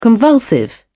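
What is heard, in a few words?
"convulsive", British English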